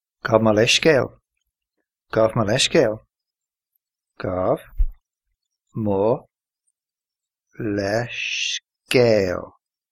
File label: excuse me irish